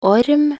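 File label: "orm", Norwegian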